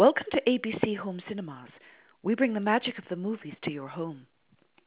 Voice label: Prof Scot